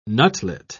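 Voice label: "nutlet", English, British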